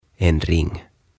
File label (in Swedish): "En Ring", "Rengas"